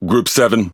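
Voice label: Speech Pilots Bomber, British English; World Of Warships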